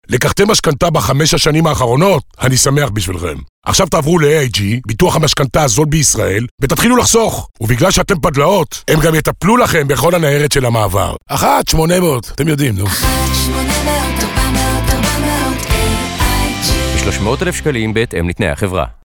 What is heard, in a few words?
Radio; AIG; Mashkanta